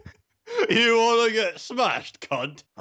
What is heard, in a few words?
two adults read an adult story